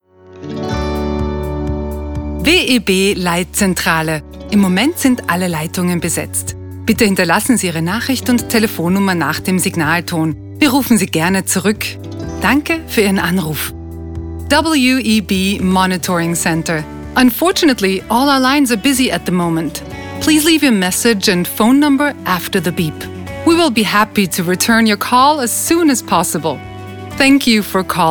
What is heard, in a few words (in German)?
Telefonansage DE EN FR